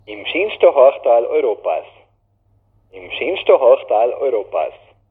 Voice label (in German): Mundart; tannheimertal